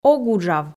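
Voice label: "ог уджав", Komi, female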